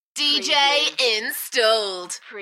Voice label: UK female Dj installed Enthusiastic; beanstalk audio

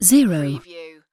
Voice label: "zero", UK female; lynne publishing

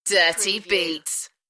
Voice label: UK female; Dirty beats

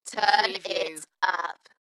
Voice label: UK female Turn it up Dalekness; beanstalk audio

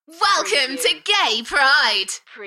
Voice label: UK female, Welcome to gay pride, Enthusiastic; beanstalk audio

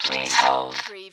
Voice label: Robot voice - please hold

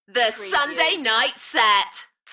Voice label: UK female, The sunday night set, Enthusiastic; Shows & Events; beanstalk audio

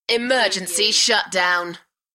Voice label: UK female Emergency shutdown; Tech / Sci-fi; beanstalk audio

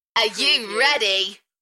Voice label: UK female Are you ready Enthusiastic; beanstalk audio

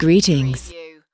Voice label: US female greetings; lynne publishing

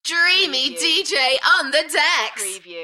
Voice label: UK female Dreamy dj on the decks Enthusiastic; beanstalk audio

